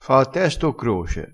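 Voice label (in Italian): "fa a testa", vocabolario dialettale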